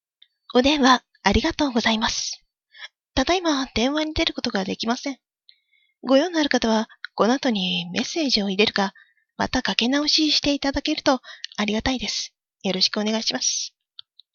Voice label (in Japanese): rusuden f